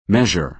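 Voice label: "measure", American English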